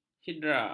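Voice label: "hidra", Malay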